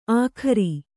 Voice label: "ಆಖರಿ", kannada